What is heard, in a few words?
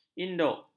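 "induk", Malay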